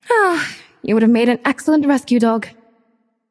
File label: taunt directed; smite; aphrodite; fenrir